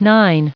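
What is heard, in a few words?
"nine", US English, recite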